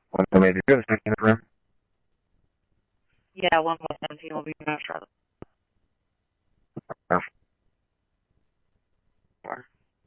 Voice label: Chandler Police, scanner